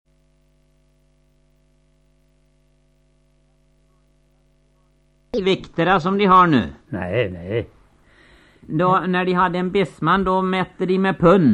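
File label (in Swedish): Humla Dalum Västergötland, dialekter; Svenska sagor; Folkminnen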